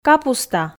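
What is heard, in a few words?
"капуста", Komi, female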